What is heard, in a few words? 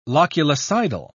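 "loculicidal", English, British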